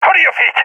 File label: request move, balkan; vo; balkan epic